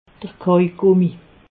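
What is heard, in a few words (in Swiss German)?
"kauj gummi", Alsatian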